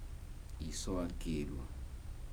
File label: happy, Kibembe